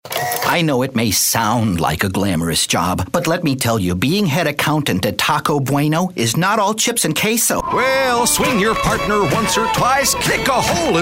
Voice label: men, animation; Approachable, relatable, and authoritative.